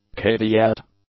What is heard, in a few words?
"caveat", English; nouns